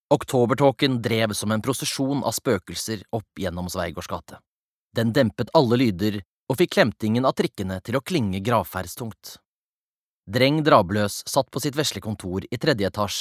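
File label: Norwegian lydbok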